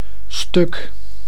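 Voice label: "stuk", Dutch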